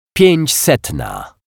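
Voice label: "piecsetna", Polish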